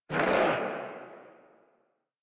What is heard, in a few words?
Threatened Corrupted Grineer Male